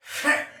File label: Attack, Soldier Male; Sinecure